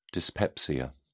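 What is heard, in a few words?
"dyspepsia", British English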